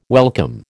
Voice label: "welcome", American